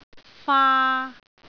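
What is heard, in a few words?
"faa3", Cantonese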